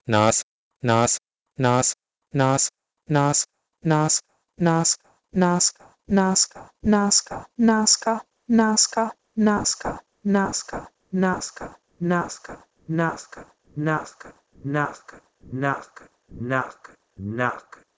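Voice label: Proto-Indo-European nh2s to Romani nak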